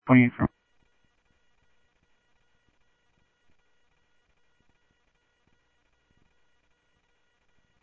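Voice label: Sheriff West County, scanner audio trunk; MCSO - WEST Sheriff-WestCnty; ThompsonPeakScot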